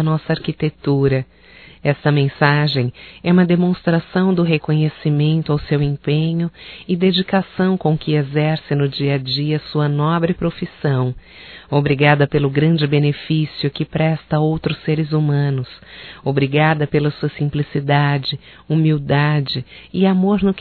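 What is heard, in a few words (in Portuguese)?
HOMENAGEM ENGENHEIRO (F), Só Voz; Telemensagens; HOMENAGENS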